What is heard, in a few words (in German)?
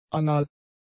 "anal", German; adjectives